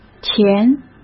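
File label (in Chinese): "田", Mandarin Chinese; hsk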